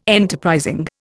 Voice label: "enterprising", Indian